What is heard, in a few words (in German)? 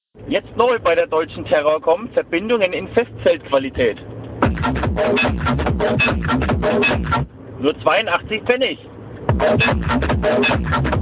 werbung